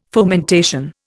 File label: "fomentation", Indian